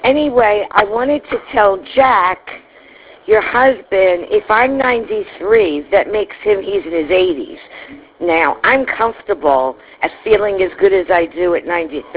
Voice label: Voice Mail